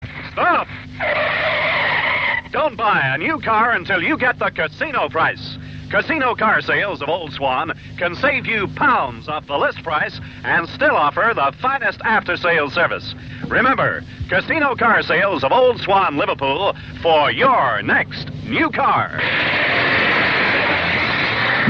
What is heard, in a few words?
car sales ad; Casino